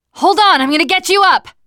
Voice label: revive friend loud, teen girl